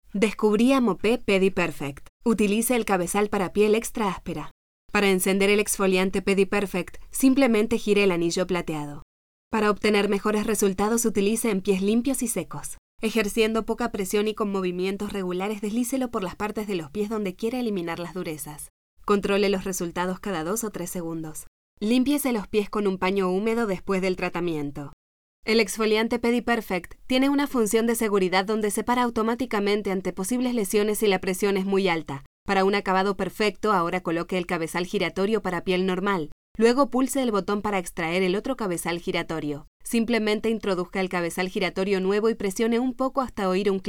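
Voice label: latam female narration; voice demos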